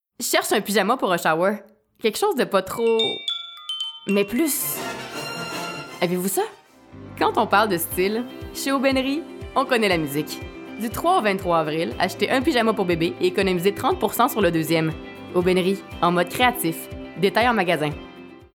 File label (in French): Annonceur Dynamique; Aubainerie